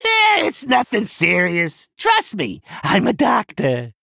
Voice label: Male Goblin Combat Loss